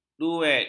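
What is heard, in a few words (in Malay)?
"duet", Malay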